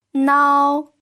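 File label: "nao", pinyin, first tone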